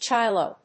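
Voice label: "chylo", English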